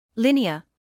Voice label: "linear", medical English